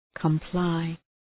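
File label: "comply", English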